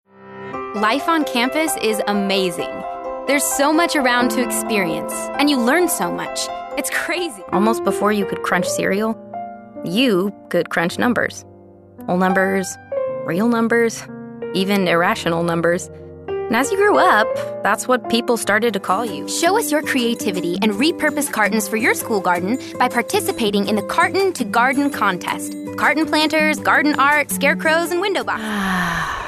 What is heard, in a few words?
Voiceovers